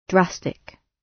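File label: "drastic", British English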